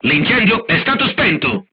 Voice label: voice message fire extinguished, crew tanks italian